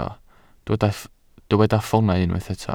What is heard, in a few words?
"ffoonau", Welsh